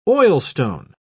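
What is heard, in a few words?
"oilstone", British English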